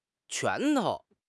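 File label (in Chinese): "拳头", Chinese; HSK6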